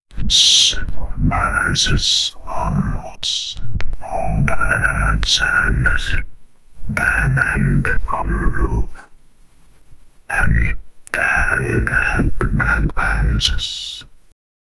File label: read this text evil voice